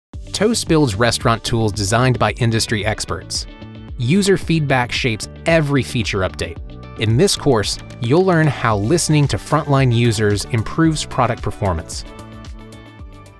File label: E Learning Sample; Toast